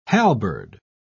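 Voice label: "halberd", British English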